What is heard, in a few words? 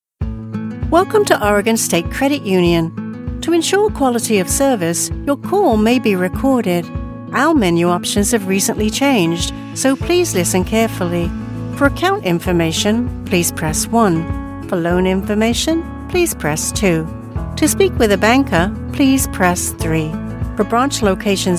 telephone